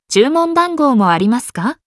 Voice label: Customer Service